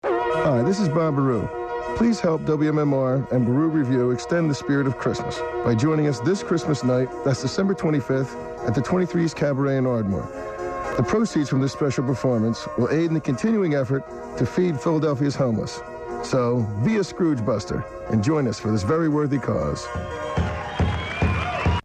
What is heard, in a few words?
Commercial; Beru Revue